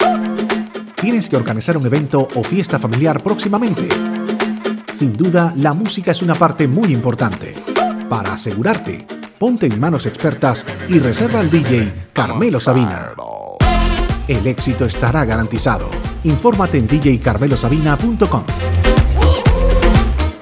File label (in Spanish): Cuña; kiss-fm